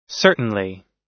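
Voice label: "certainly", American English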